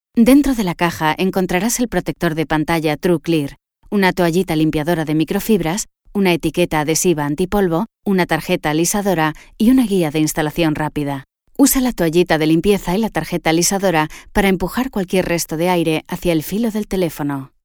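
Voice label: Spanish informative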